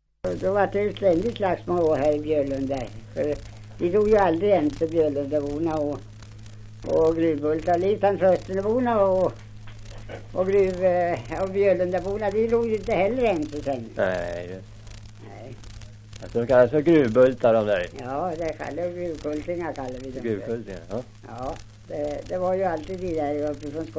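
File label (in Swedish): Björnlunda Södermanland, dialekter, svenska sagor; Folkminnen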